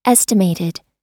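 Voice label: "estimated", American English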